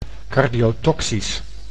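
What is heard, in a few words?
"cardiotoxisch", Dutch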